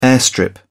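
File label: "airstrip", British English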